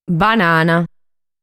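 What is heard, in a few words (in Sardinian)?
"Banana", Sardinian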